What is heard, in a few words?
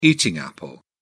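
"eating apple", British English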